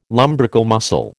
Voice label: "lumbrical muscle", American